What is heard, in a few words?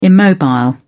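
"immobile", British English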